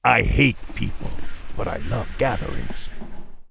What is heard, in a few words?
Death Knight Angry; warcraft3